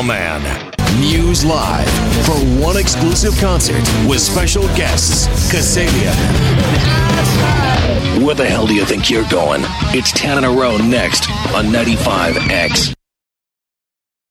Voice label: ROCK IMAGING